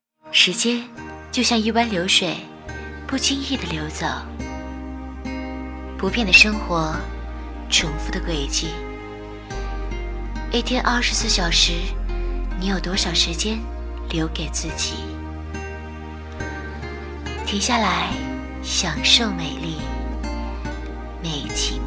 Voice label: female chinese voice over sales, mandarin

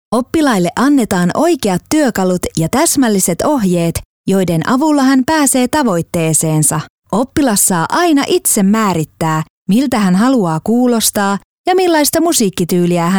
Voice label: female finnish voice over narrative

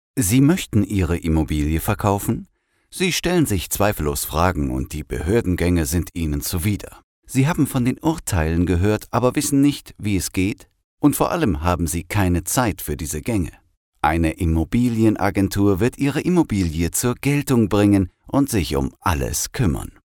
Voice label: male german voice over talent narrative

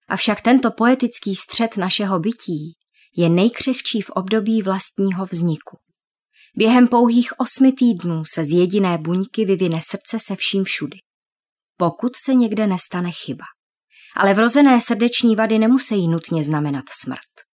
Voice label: female czech voice over narrative

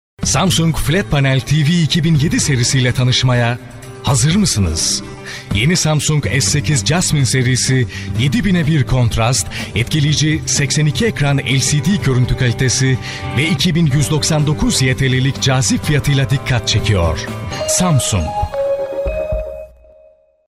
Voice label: male turkish voice over talent sales

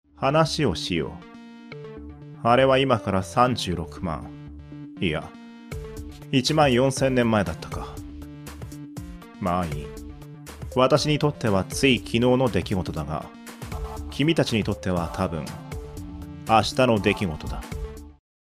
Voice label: male japanese voice over talent narrative

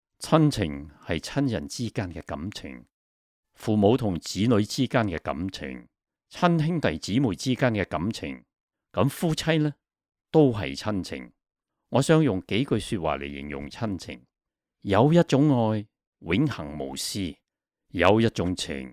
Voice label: male cantonese voice over talent narrative

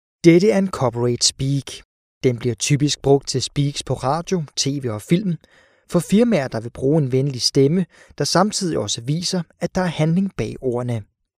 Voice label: male danish voice over narrative

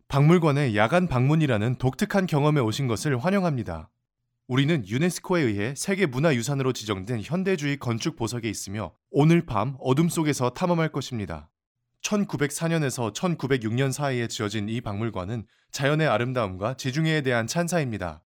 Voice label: male korean voice over talent upbeat

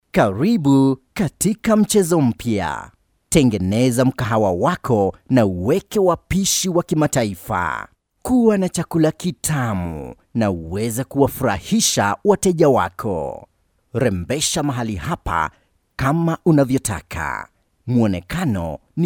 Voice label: male swahili voice over talent upbeat

